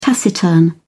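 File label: "taciturn", British English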